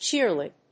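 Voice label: "cheerlead", English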